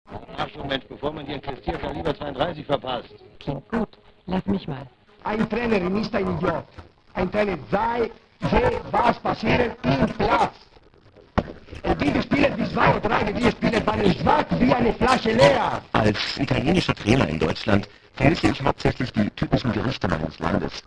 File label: Modulated Voices